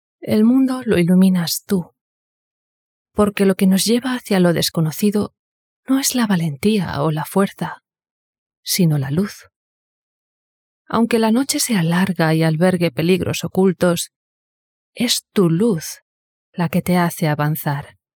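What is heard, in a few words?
Spanish, female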